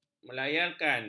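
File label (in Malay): "melayarkan", Malay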